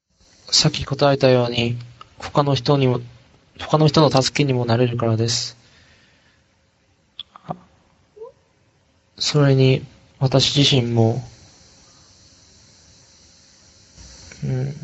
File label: Japanese Conversation